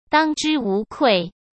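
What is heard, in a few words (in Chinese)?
"当之无愧" Mandarin Chinese; chinese-flashcards; hsk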